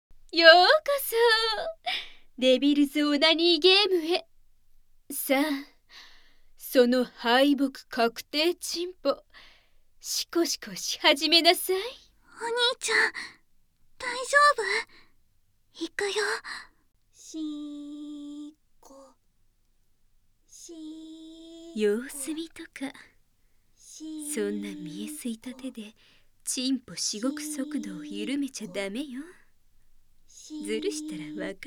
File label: voice, ASMR